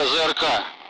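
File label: Speech Sound Russian Common Allied Flight; SAM; DCS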